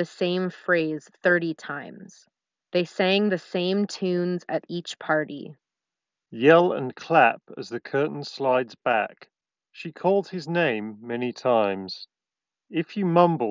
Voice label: Apple AirPods Pro Ideal microphone sample

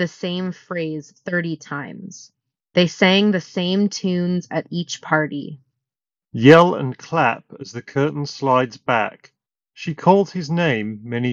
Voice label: Ideal microphone sample; 1MORE Evo

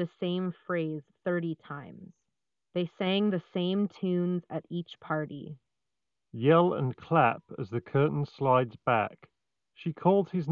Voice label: BT Ideal microphone sample; Beyerdynamic Amiron Wireless

